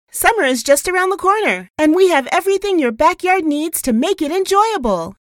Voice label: Bright; voiceover; San Diego, CA